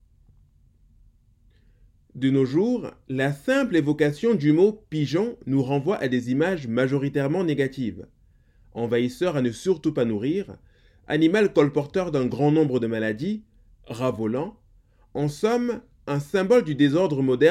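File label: "Unlock" clip (advert)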